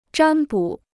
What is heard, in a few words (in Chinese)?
"占卜", Chinese